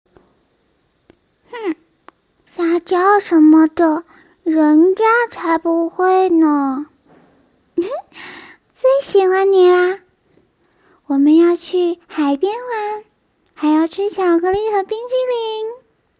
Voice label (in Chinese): 萝莉